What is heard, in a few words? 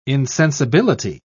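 "insensibility", British English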